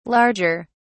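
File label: "larger", British English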